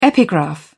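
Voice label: "epigraph", British English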